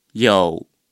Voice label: "有", Chinese